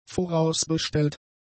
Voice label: "vorausbestellt", German; adjectives